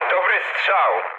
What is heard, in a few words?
player killed enemy mood low, speech pol; War Thunder